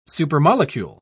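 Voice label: "supermolecule", English, British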